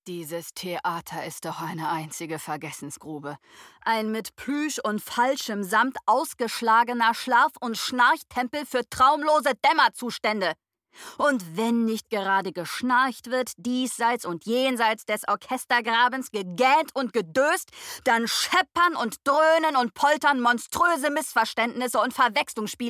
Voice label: Theater

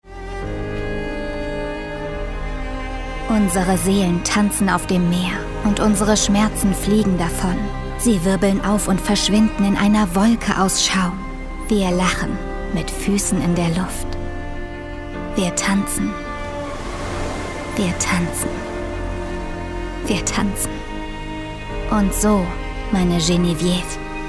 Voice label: Off verliebt; LOFT VOICES

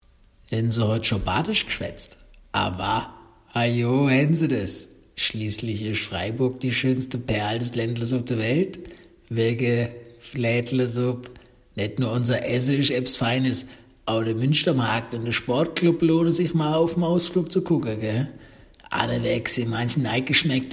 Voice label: Dialekt Badisch

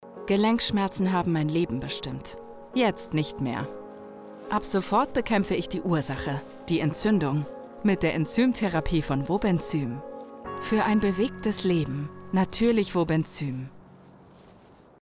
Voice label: Hoerprobe Spot; Wobenzym

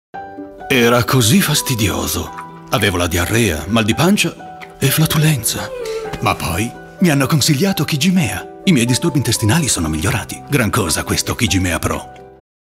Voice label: TV Spot; Kijimea

